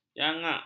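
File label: "jangak", Malay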